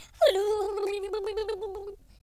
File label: scared; speech